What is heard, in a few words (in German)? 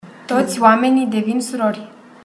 rumänisch